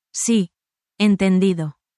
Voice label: spanish, spanish phrases